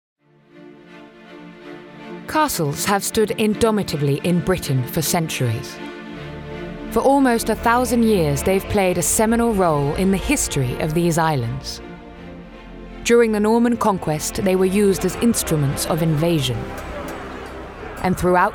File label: Documentary